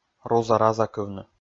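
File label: "руза-разакывны", Komi, male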